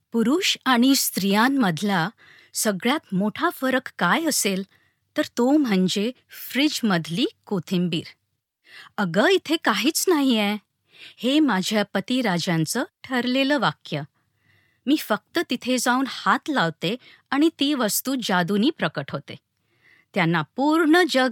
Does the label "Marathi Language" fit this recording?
Yes